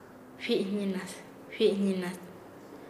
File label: Nasa Yuwe; escritura; paez